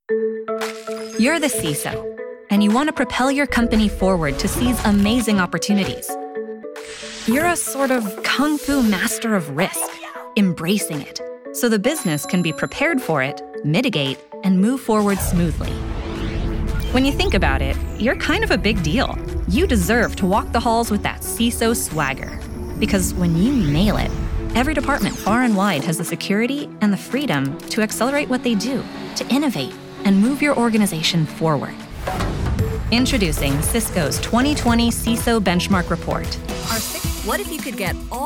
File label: women narration